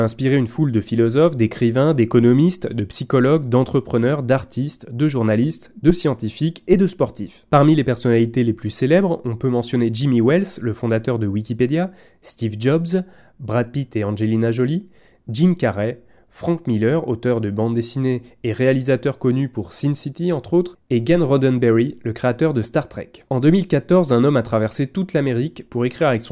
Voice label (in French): Voix Off